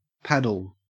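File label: "paddle", Australian English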